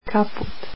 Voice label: coat, croatian